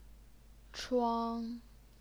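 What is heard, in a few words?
"chuang", first tone, Chinese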